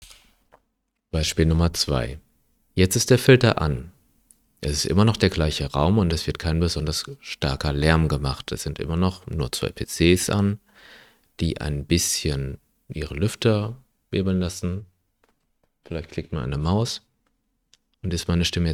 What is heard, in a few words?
test filtered without noise; rnnoise